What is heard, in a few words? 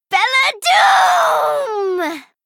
Female Gnome Attack